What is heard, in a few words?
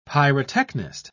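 "pyrotechnist", British English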